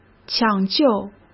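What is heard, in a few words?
"抢救", Mandarin Chinese; chinese-flashcards; hsk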